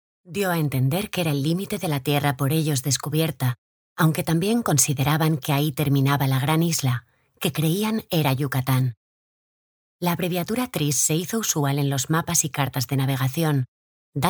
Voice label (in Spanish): locutora spanish voiceover